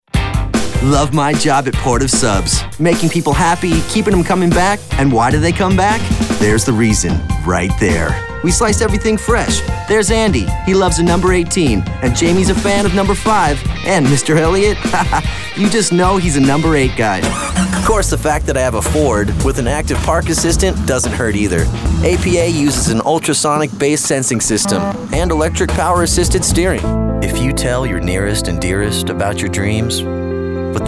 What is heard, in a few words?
Commercial